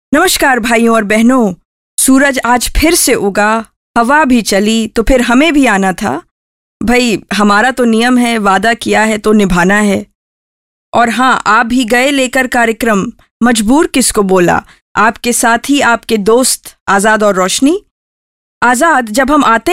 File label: hindi, female voice